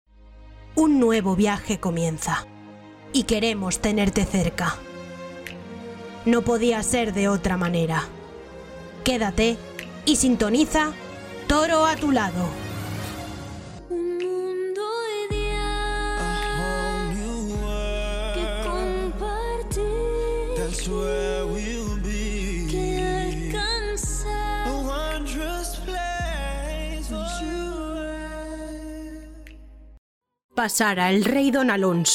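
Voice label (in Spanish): corporativo España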